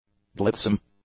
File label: "blithesome", English; adjectives